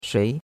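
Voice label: "shui2", Mandarin Chinese; Cours-de-Chinois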